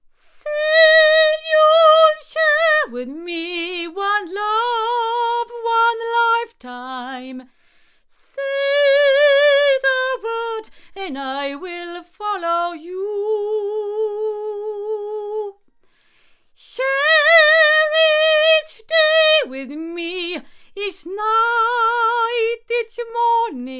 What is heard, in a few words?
Avant CV-12 tube cardioid female